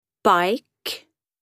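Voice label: "bike", spoken word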